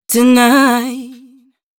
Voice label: female d 120bpm, short hooks, Dance Voice; Mutekki Media; Dance Ultra Voice VOL-1

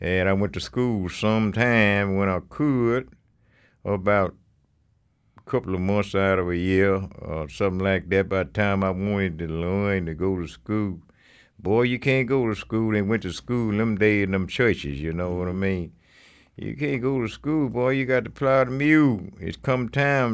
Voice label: STORY, SPOKEN

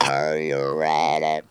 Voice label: "are you ready", Funky Vocals; USB Soundscan vol.18; AKAI

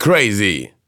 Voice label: Vocals

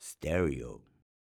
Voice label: "stereo", shouts; Best Service; Hyper Dance

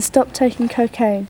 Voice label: "stop cocaine", vocal

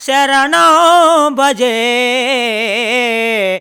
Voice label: MAN VX, Indian Traditions

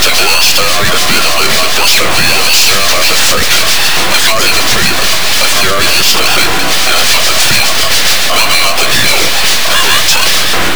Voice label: POLICE VOX R, Big Beat Samples; AKAI; SPEAKS KIT

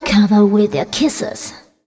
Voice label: fem spo, Vocals; Mutekki Media; Diamond Vocals 2

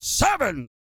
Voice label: COUNT, Vocal Planet, R&B & Dance, COUNTING; ILIO

